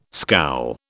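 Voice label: "scowl", British English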